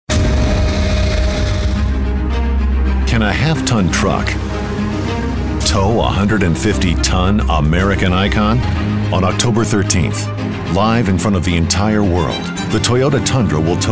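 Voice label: Commercial, real people male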